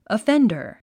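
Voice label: "offender", English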